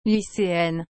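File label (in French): "lyceenne", French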